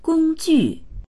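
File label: "gong1ju4", Mandarin Chinese; 工具; gōngjù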